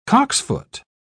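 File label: "cocksfoot", English, British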